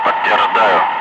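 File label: Russian speech, Ka-50 Wingman; affirm; DCS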